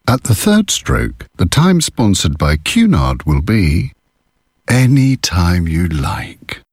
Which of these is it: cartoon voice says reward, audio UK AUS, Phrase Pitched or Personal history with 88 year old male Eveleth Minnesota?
audio UK AUS